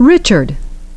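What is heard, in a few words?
"Richard", English pronunciation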